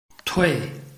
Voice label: "tui4", Chinese